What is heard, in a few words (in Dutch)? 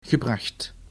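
"gebracht", Dutch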